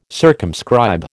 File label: "circumscribe", American